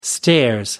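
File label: "stairs", British English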